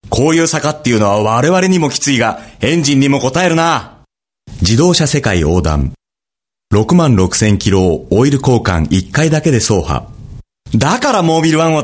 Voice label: Japanese

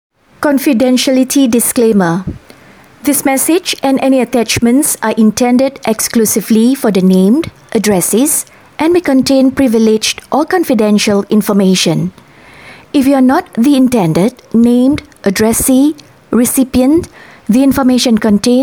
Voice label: English

